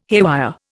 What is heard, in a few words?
"haywire", Indian